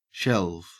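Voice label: "shelve", Australian English; pronunciations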